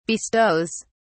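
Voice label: "bestows", British English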